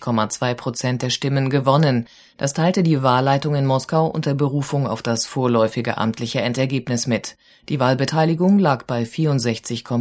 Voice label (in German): radio nachrichten